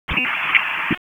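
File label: Sheriff's Office Dispatch 1, p25; Wake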